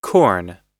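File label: "corn", American English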